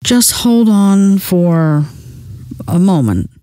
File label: just hold on, scientist female